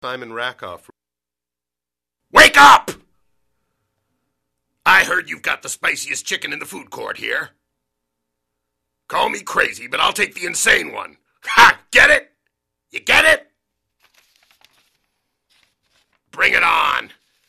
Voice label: animation male